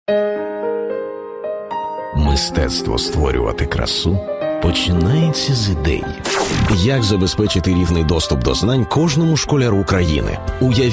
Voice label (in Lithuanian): Ukrainieciu balsas, vyras